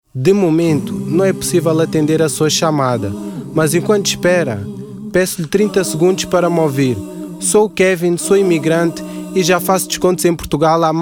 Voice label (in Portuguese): telefone; Mensagem